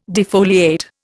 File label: "defoliate", Indian